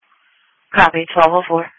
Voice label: EMS Dispatch